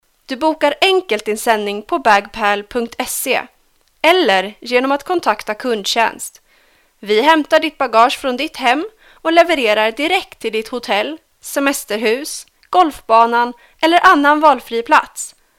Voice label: 瑞典语女 外语 小语种

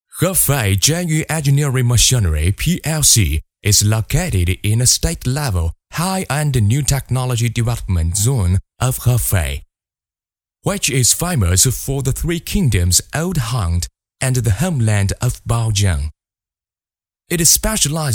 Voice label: 男英 外语 国内英语 男中音

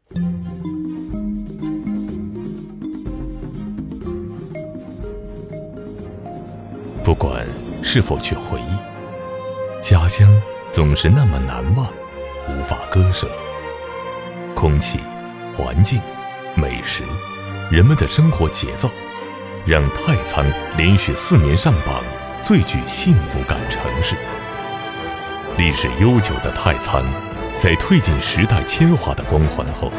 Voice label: 男国 纪录片; 糟油草头; 舌尖

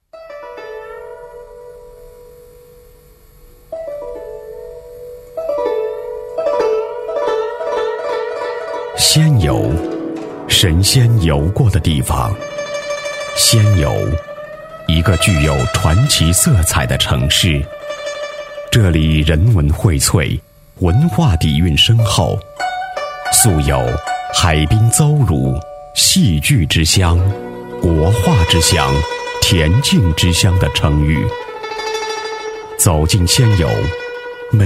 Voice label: 专题 学校, 男国; 仙游筝琴世界音乐学校

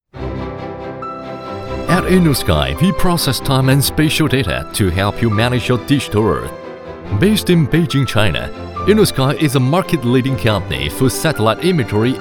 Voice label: 男英 外语 国内英语 高端宣传片; INNOSKY

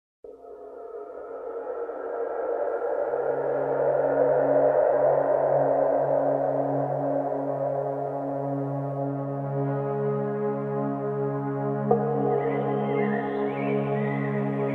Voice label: 解说 沉稳; 宣传片; 旅游; 灰汤温泉

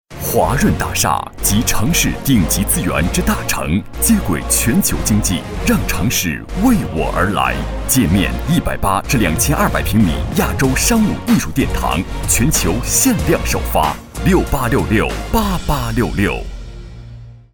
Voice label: 广告 地产; 华润

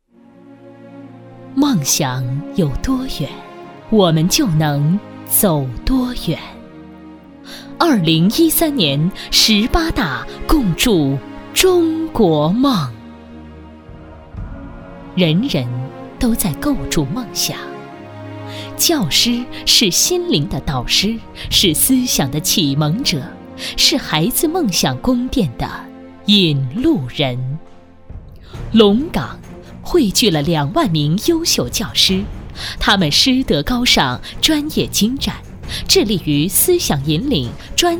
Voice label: 浑厚; 专题 学校; 龙岗教育学校

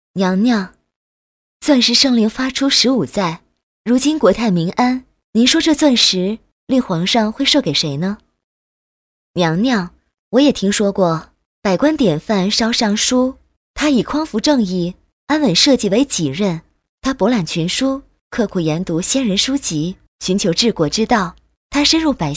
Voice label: 女国 动画 模仿; 模仿魏璎珞

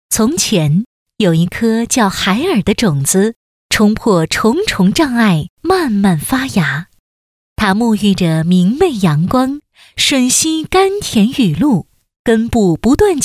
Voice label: 多媒体 儿童故事 讲故事

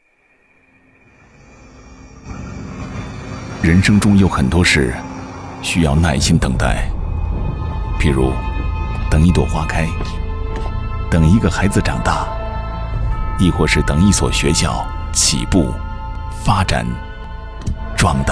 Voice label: 其他 旁白 学校校长自述, 男国

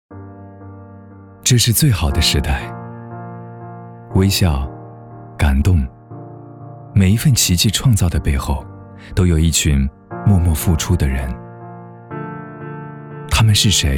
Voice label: 男国 专题 人物 人物专题 稳重

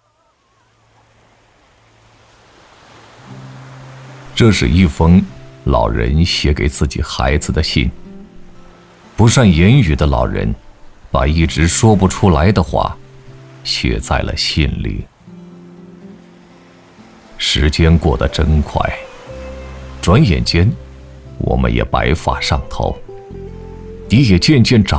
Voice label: 男国 微电影 老人独白苍老走心

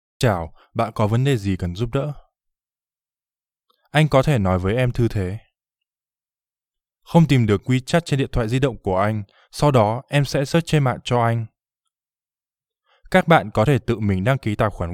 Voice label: 越南语男, 外语, 小语种

